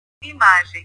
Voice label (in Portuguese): "imagem", portugues